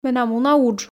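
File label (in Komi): менам уна удж, Komi, female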